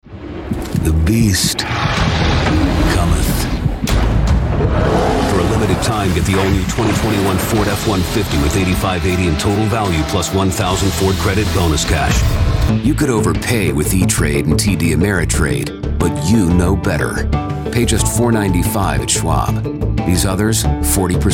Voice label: Commercial